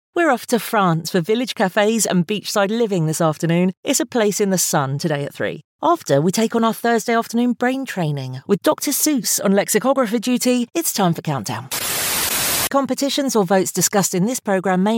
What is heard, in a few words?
continuity radio, voiceover